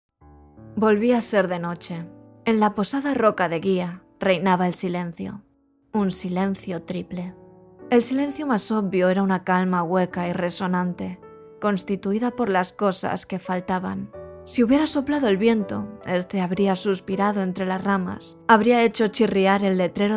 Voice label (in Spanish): muestras audiolibro